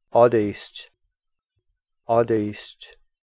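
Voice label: "Aadiishtc", ant, Cahto language of California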